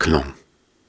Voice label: Soft Syllables and Imaginary Words, Viking Vocal

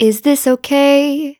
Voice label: Is This Okay A Major, Vocals, Phrases; GhostHack

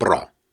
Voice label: Syllables and Imaginary Words, Hard, Viking Vocal

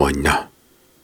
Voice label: Soft Syllables and Imaginary Words, Viking Vocal

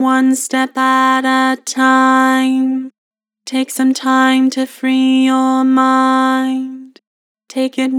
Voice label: Vocals Flat One step at a time; Harmor

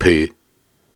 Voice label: Soft Syllables and Imaginary Words, Viking Vocal

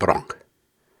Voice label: Viking Vocal Syllables and Imaginary Words, Hard

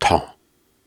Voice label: Soft Syllables and Imaginary Words, Viking Vocal

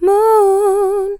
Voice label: "Moon", Female Vocals, Dry

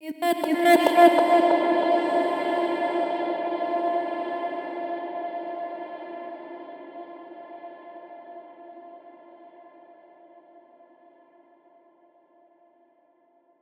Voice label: Voice F 140 BPM, Psytrance Voices Hits; Ghosthack; Psytrance Visions Volume 2

